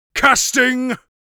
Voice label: casting, mage voice; Mage Voice Pack